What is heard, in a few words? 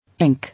"Ink", English